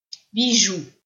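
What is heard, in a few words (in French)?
"bijoux", French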